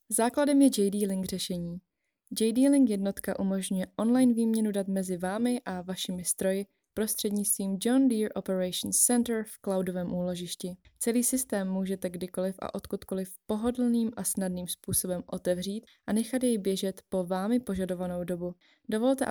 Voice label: Female, Czech